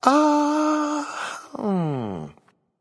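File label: "seriously", male; Smite; baronsamedi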